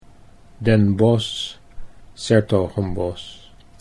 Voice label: Den Bosch s Hertogenbosch, Dutch

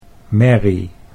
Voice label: "merrie", Dutch

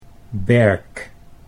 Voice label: "berk", Dutch

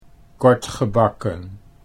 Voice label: "kort gebakken", Dutch

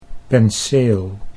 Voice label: "penseel", Dutch

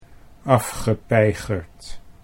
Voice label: "afgepeigerd", Dutch

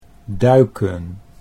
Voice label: "duiken", Dutch

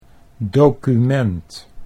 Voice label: "document", Dutch

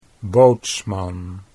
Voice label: "bootsman", Dutch

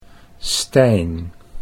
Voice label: "Stijn" Dutch

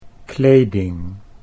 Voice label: "kleding", Dutch

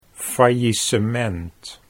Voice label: "faillisement", Dutch

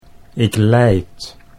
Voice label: "ik leid", Dutch

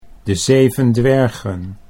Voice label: "de zeven dwergen", Dutch

